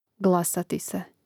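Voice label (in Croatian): "glasati se", Hrvatski; mreznik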